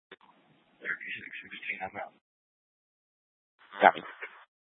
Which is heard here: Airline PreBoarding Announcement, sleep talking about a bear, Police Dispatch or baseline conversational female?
Police Dispatch